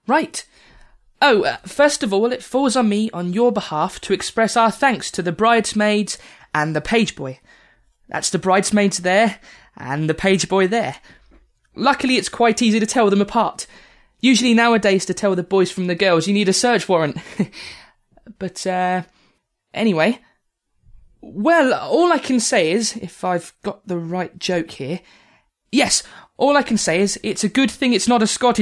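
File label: drama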